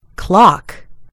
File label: "clock", en GB; gcompris